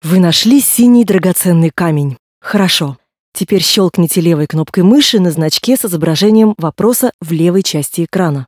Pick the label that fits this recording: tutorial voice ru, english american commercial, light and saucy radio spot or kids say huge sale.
tutorial voice ru